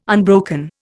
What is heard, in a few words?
"unbroken", Indian